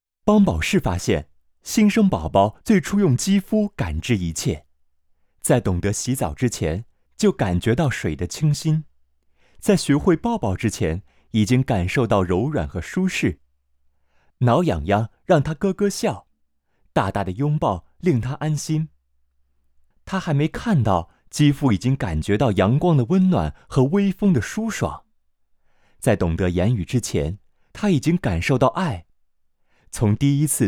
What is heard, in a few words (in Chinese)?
品质广告母婴用品类